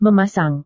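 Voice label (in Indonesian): "memasang", Indonesian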